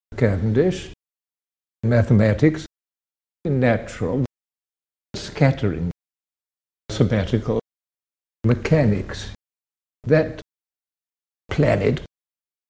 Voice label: rp trap